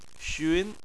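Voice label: "xun", Chinese, second tone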